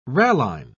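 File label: "ralline", British English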